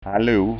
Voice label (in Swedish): "allo", skanska ord